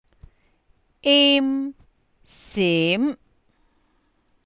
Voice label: im sim2, cantonese